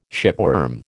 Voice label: "shipworm", American

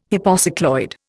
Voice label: "hypocycloid", Indian

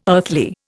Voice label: "earthly", Indian

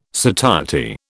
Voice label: "satiety", British

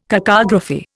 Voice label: "cacography", Indian

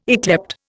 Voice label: "yclept", Indian

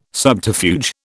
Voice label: "subterfuge", British

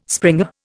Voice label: "springer", Indian

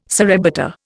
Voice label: "surrebutter", Indian

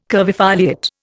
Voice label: "curvifoliate", Indian

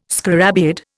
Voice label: "scarabaeid", Indian

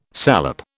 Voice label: "salep", British

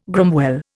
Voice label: "gromwell", Indian

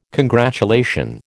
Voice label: "congratulation", American

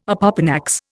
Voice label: "opopanax", Indian

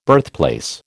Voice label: "birthplace", American

